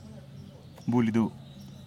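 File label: "bulidu", zapotec; ball of thread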